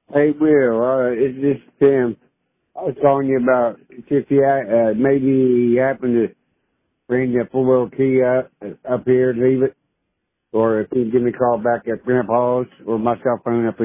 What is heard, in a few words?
Voicemail